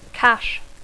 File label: "cache", English